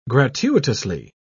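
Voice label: "gratuitously", British English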